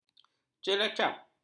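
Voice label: "ceracap", Malay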